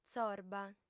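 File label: "tzorba", female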